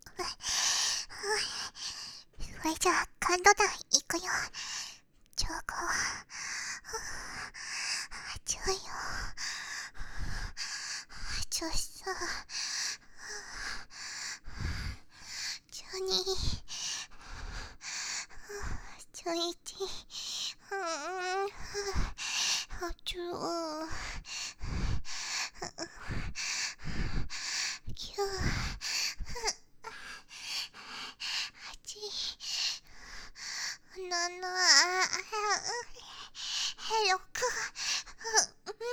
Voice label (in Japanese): カウントダウン ボイス バイノーラル